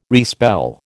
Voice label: "respell", American